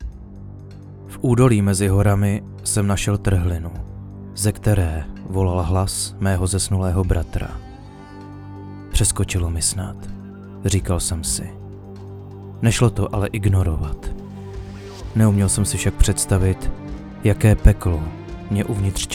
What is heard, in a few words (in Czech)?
Upoutávka; cesky voiceover